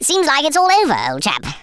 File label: "victory", Speech; Worms Armageddon